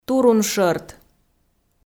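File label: "туруншӧрт", Komi, female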